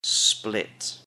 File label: "split", English; MEAT, POUTRY AND SEAFOOD